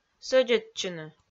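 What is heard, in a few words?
"сӧдзӧдчыны", Komi, female; audio dict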